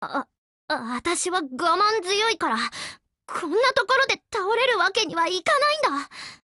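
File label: BREAK Japanese, Voice; GF Alarm; F1 Mod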